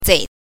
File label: "zei", pinyin